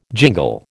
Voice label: "jingle", American

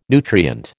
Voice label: "nutrient", American

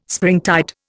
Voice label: "springtide", Indian

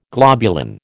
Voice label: "globulin", American

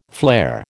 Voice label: "flare", American

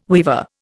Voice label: "weaver", Indian

